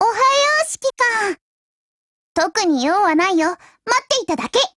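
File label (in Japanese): "hello", Japanese; MP446Mod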